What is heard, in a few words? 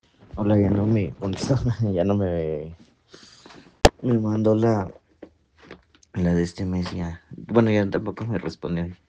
whatsapp ptt